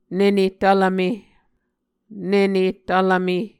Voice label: "nene tvleme", Muscogee

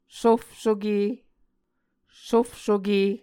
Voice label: "sofsoke", Muscogee

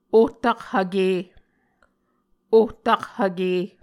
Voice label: "ohtakhvke", Muscogee

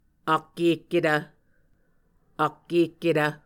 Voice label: "ak ehketv", Muscogee

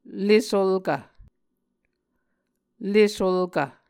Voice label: "le sulkv", Muscogee

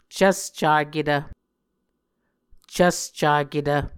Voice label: "cvscaketv", muscogee

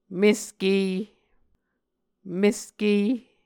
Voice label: "meske", Muscogee

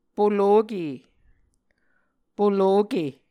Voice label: "poloke", Muscogee

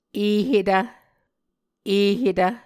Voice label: "ehetv", Muscogee

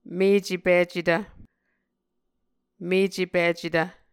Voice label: "mecepicetv", Muscogee; Mvskoke Words with Voicing Consonants; Martin, Jack B., and Margaret McKane Mauldin. 2023. A Dictionary of Creek/Muskogee; SIL International

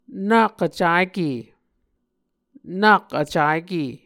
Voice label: "nak vcake", Muscogee

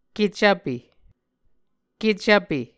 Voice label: "kecvpe", Muscogee

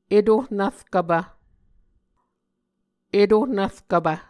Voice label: "etohnvrkvpv", muscogee

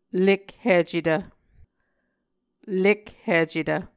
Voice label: "lekhicetv", Muscogee

